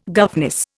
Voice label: "governess", Indian